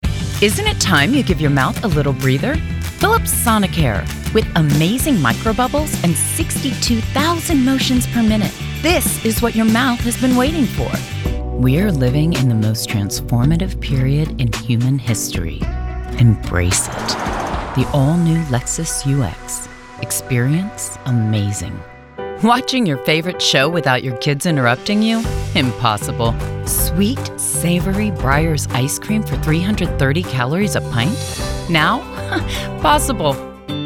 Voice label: commercial